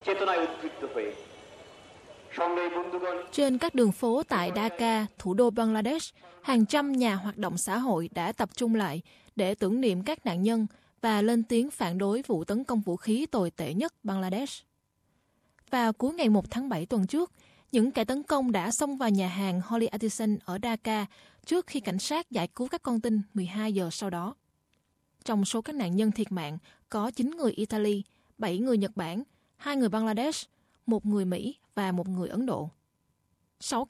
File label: vietnamese; bangladesh attack leaves around 28 killed